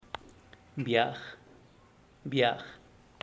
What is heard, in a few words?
biaj camisa, zapoteco teotitlan